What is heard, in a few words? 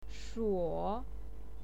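"shuo", Mandarin Chinese, third tone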